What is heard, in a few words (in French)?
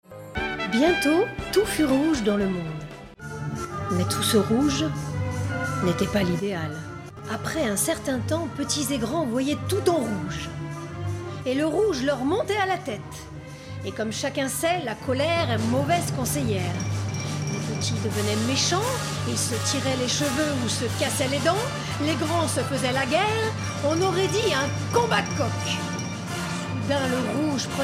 Narration Conte Voix colerique; Magicienne